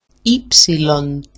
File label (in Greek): "ipsilon", greek; ύψιλoν literally= i light; upsilon